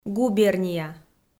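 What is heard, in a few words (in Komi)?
"губерния", Komi, female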